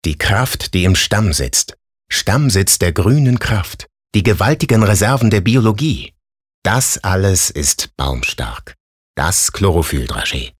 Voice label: Werbung Sanft

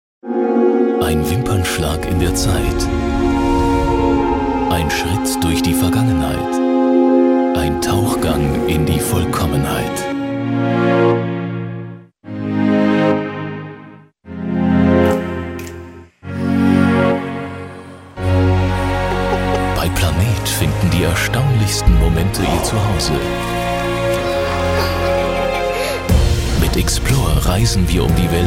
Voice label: Sanft

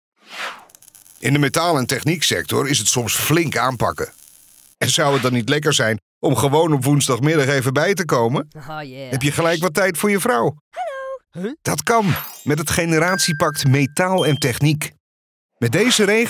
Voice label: NL Freundlich

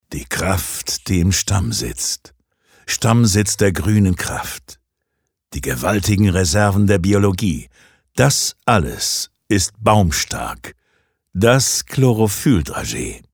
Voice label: Werbung Kraftvoll

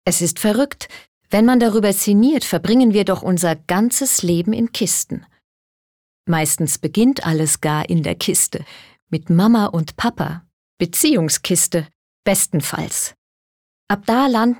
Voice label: Frech Sarkastisch; Kisten

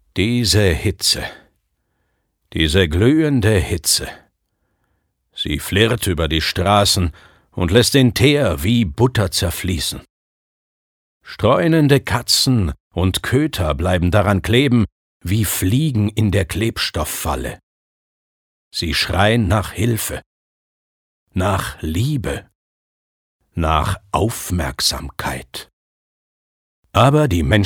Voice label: Schauspiel Neutral

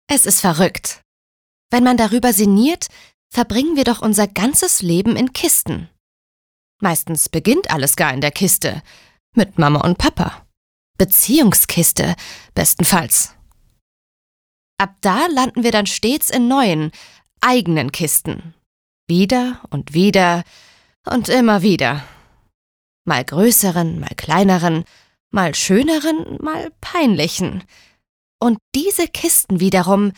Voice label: Frech; Kisten

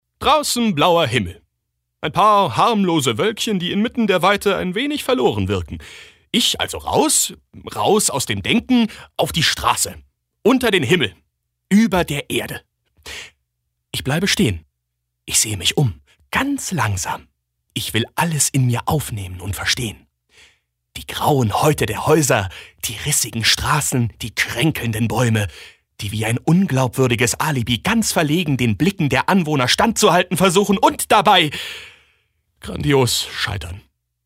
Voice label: Schauspiel Dynamisch

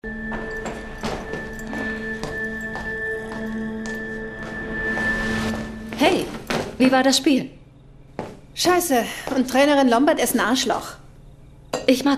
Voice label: Charge Energisch; Fremdtake

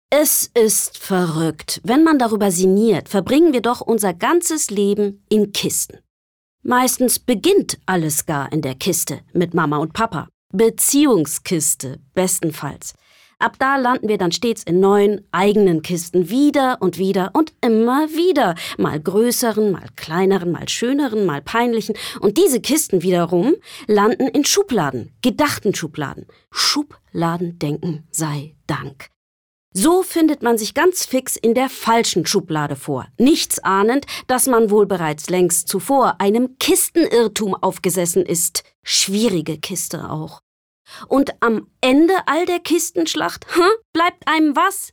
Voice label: Frech Sarkastisch; Kisten

